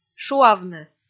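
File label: "шуавны", Komi, female; kpv audio dict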